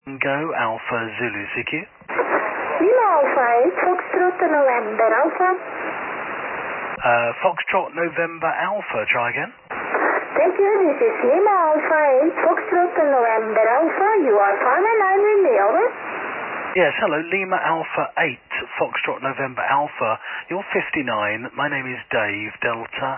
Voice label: 28MHZ